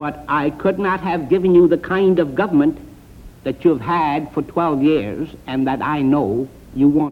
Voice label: Speech New York, american english dialect recordings; Fiorello H La Guardia